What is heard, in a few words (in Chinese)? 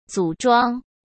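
"组装", Mandarin Chinese; hsk; chinese-flashcards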